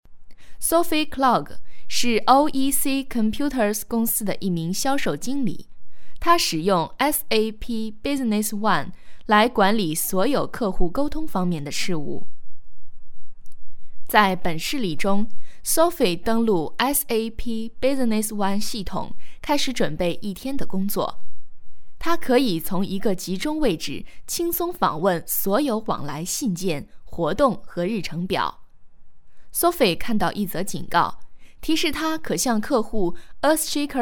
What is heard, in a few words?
chinese female locutora china